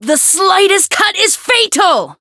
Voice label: Voice variable chant; felmina